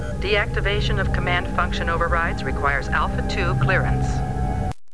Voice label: Computer Deactivation of command function overrides requires Alpha-2 clearance; startrek